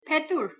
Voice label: "petur", Armenian